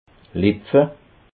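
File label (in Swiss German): "lipfe", Alsatian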